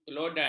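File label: "kelodan", Malay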